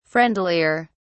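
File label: "friendlier", British English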